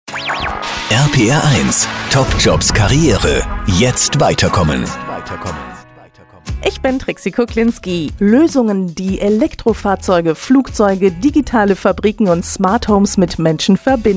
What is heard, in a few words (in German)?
Infomercial verpackt; TE Connectivity